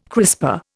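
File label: "crisper", Indian English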